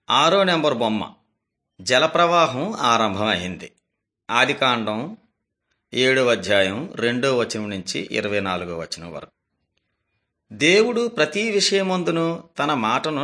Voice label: telugu bangladesh; beginning with god

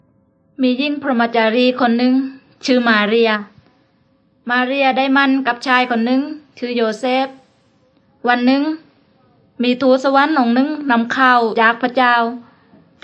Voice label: words of life creation to christ thai southern

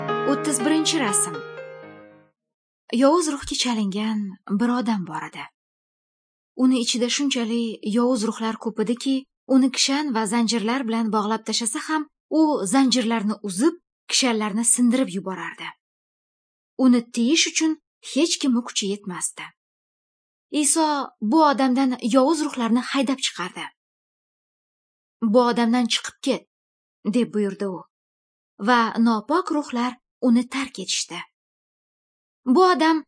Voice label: good news female uzbek northern

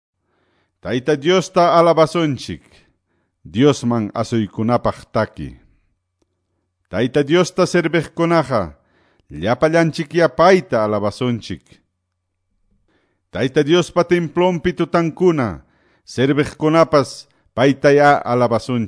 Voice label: salmos, quechua ayacucho; quechua ayacucho pbs non drama

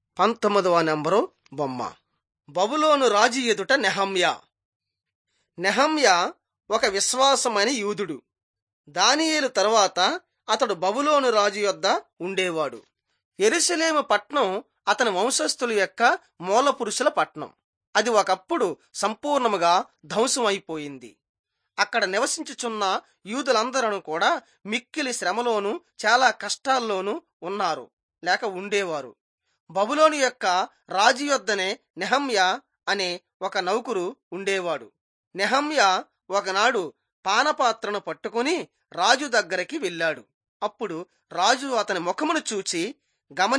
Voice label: telugu bangladesh; on trial for god

